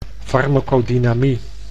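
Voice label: "farmacodynamie", Dutch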